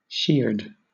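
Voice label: "sheared", British English; pronunciations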